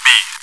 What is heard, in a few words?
chitchat, bot, voice commands; svencoop